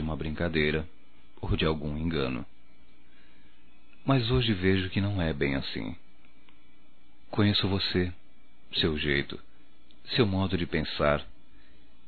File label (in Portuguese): NAMORO POR TELEFONE, Telemensagens, Românticas, masc